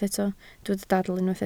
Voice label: "dadl", welsh

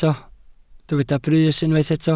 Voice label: "brys", Welsh

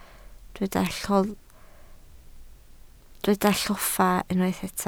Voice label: "lloffa", Welsh

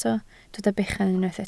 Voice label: "bechan", Welsh

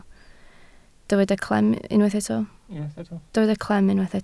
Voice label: "clem", Welsh

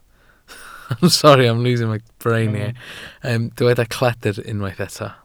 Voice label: "cledr", Welsh

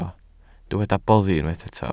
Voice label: "boddi", Welsh

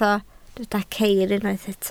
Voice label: "ceir", Welsh

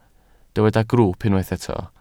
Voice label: "grwwp", Welsh

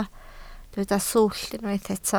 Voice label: "swllt", Welsh

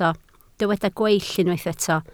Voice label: "gweill", Welsh

